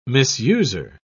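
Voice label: "misuser", British English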